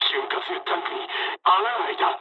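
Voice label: damaged oil mood med, speech japanese; War Thunder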